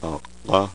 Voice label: voiceless epiglottal plosive, phoneme